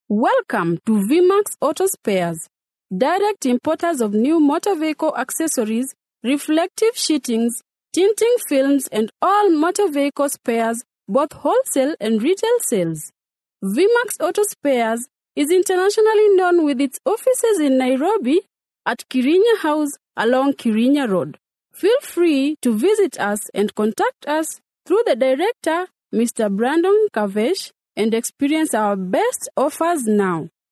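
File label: Swahili, female, Accented English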